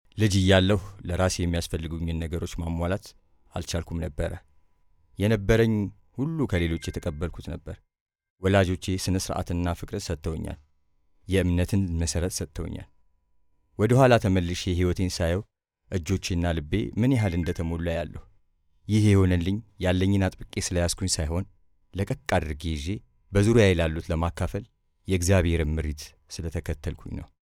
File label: male amharic voice over narrative